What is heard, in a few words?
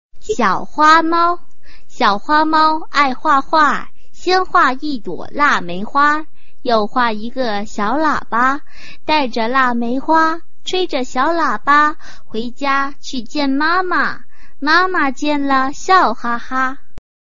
chinese tongue twister